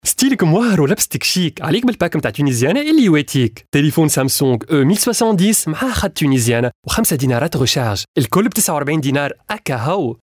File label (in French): Voix Homme